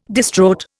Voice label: "distraught", Indian